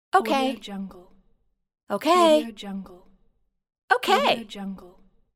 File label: female saying ok